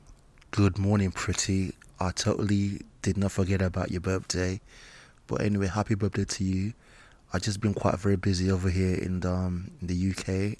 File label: voicemail